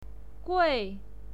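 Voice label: "gui", Mandarin Chinese pinyin, fourth tone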